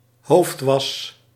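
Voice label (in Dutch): "hoofdwas", Dutch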